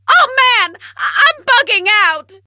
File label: low; awesomenauts